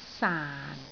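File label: "saan4", Cantonese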